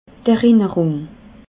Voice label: "erinnerung", alsace

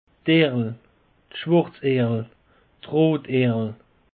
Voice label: "erl", alsace; peche

